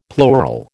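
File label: "plural", American English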